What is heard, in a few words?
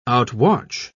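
"outwatch", English, British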